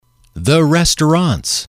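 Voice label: "the restaurants", English, male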